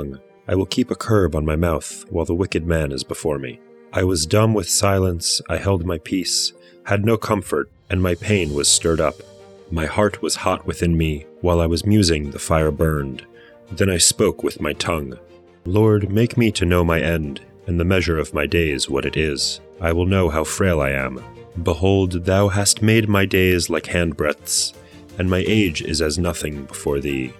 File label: poetry and prayer psalm english